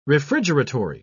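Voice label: "refrigeratory", English, uk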